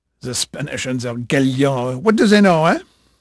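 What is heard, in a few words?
French male, voice; Pirates Of The Caribbean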